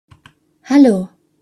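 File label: "hallo", German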